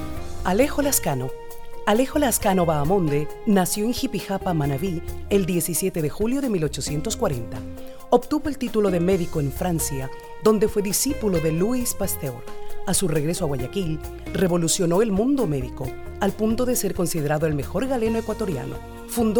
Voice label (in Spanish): PERSONAJES